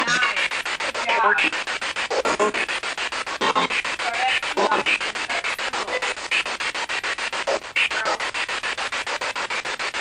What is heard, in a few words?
Bedroom Spirit Box; private residence freedom road apartment investigation return